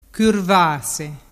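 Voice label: "curvase", Vernazzese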